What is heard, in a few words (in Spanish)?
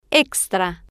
"extra", Spanish